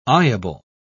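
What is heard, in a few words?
"eyeable", British English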